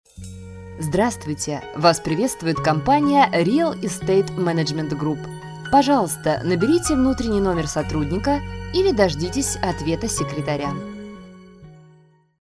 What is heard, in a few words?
IVR; Rial